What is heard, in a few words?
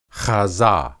hazah, hebrew